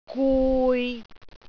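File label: "gui6", Cantonese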